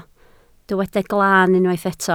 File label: "glaan", Welsh